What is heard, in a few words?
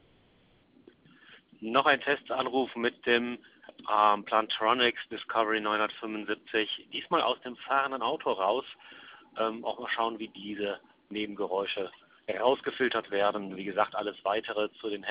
Platronics Discrovery Auto